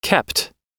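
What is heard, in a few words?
"kept", US English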